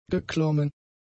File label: "geklommen", German; adjectives